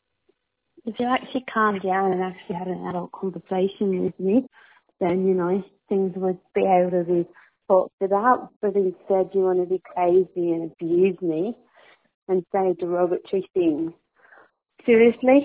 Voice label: voicemail